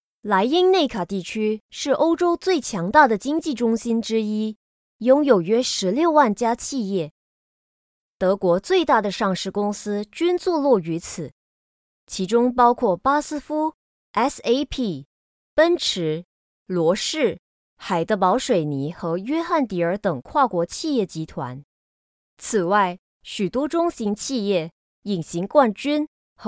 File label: chinese mandarin documentaries